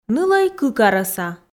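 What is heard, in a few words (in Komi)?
нылӧй кык арӧса, Komi, female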